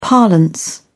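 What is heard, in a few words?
"parlance", British English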